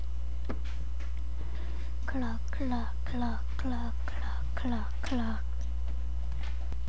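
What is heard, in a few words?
"clock", spoken word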